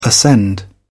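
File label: "ascend", British English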